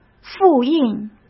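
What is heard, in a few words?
"复印" Mandarin Chinese; hsk; chinese-flashcards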